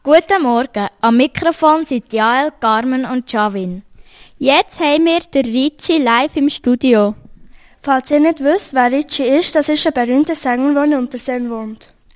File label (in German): Ansage Interview; Unterseen